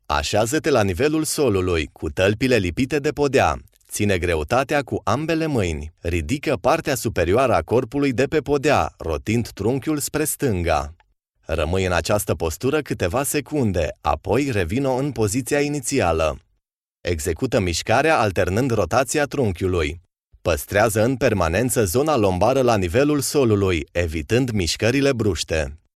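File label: Romanian narration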